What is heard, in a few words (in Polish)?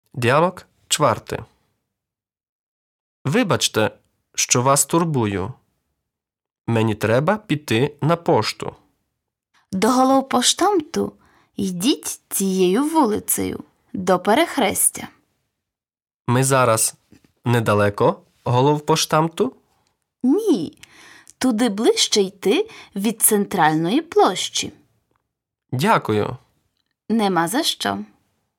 Lekcja Dialog